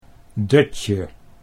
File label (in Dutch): "dutje", Dutch